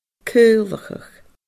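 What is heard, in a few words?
"caolachadh", Scottish Gaelic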